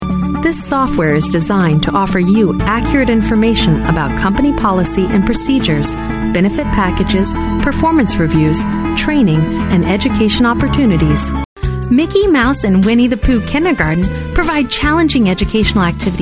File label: E Learning Tutorial